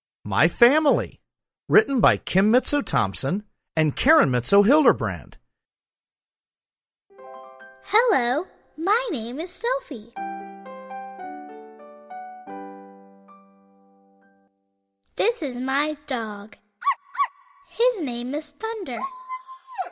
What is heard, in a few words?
English Narration; My Family; Twin Sisters